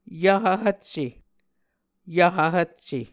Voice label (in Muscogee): "Yvhv Hvcce", Muscogee; Mvskoke Words with Repeated Consonants; A Dictionary of Creek/Muskogee; SIL International